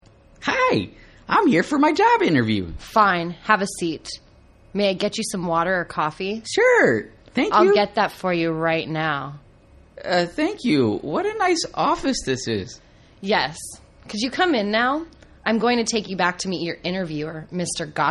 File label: Greeting by Receptionist